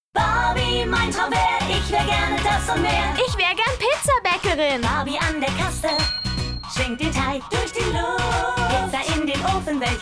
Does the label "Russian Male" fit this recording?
No